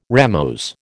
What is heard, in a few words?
"ramose", American